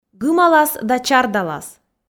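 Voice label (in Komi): гымалас да чардалас, Komi, female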